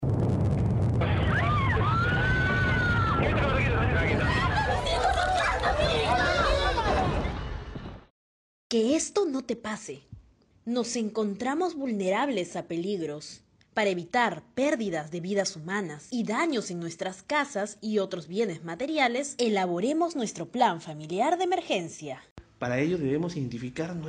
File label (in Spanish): cuña radial